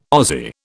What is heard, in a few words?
"Aussie", British